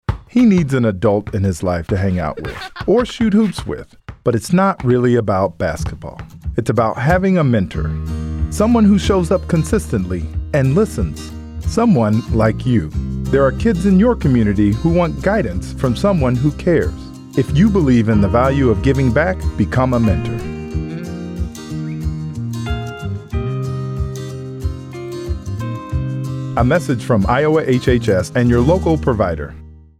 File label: Male; YLI Mentor Basketball